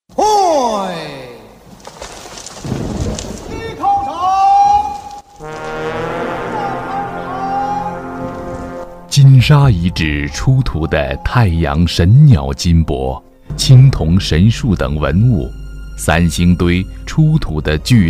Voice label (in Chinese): 金沙遗址解说